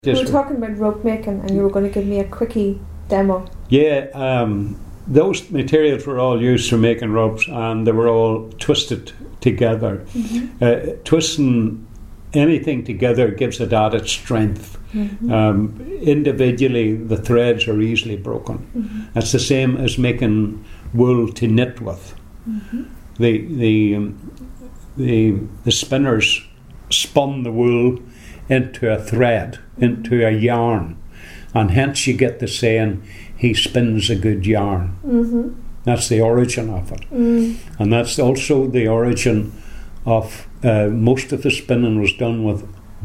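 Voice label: passage, dialects ni; interview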